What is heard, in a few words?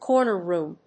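"corner room", English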